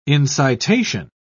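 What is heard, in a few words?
"incitation", British English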